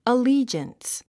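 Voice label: "allegiance", English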